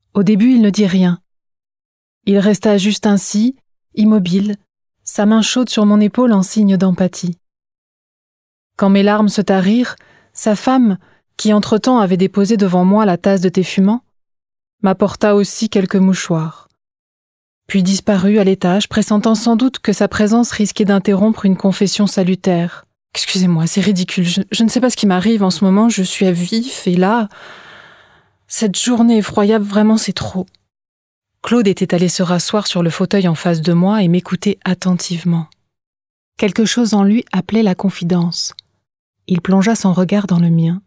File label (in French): Livre audio; ta deuxieme vie